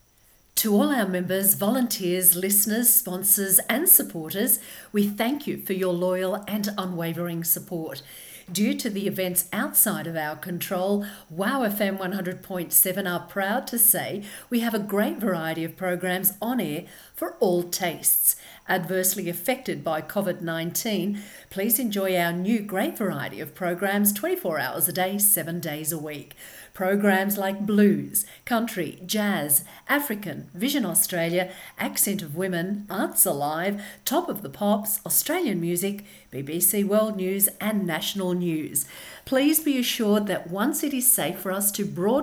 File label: special announcement; wowfm